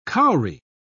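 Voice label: "kauri", British English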